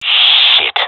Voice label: scared; Counter Strike Model Pack; CS:GO